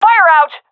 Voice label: throwing fire, seal fem; vo; Counter Strike Model Pack; CS:GO

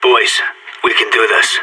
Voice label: pep talk, sas, vo; Counter Strike; CS:GO

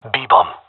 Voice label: loc b, gendarmerie male; vo

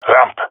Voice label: "ramp", male; vo; agents; Source Model Packs; Counter Strike Model Pack; CS2; jungle male epic

